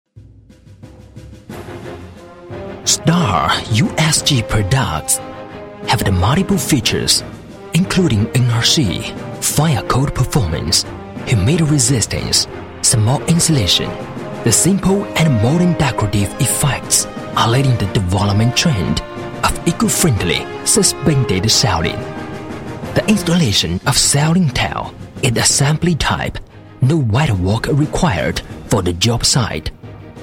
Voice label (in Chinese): 英男; 天朗